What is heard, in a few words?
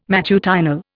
"matutinal", Indian